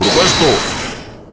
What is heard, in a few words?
Objection, Spanish; Godot